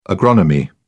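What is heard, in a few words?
"agronomy", British English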